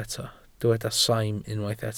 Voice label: "saim", Welsh